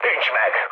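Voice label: Hungarian bomb failure mood high, speech; War Thunder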